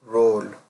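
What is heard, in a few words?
"roll", Nigerian Pidgin, male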